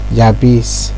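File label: "yabis", Nigerian Pidgin, male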